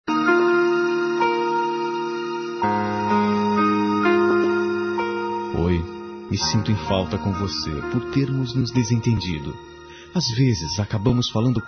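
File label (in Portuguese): PEDIDO DE DESCULPAS ROMANTICA masculino, Telemensagens; Telemensagens 2017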